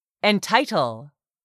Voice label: "ENTITLE", english; 3000 words